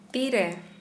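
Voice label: when, Santali, female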